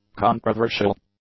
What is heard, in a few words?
"controversial", English; adjectives